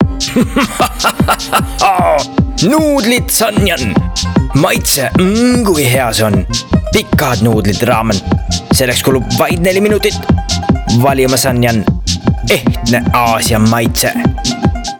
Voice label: ESTONIAN COMMERCIAL VOICE ACTING ad